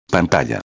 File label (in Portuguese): "pantalla", espanhol